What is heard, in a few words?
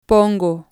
"pongo", Spanish